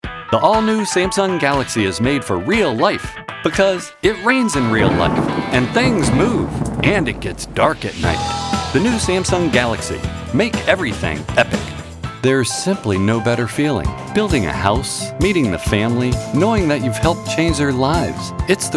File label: Commercial